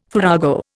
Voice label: "farrago", Indian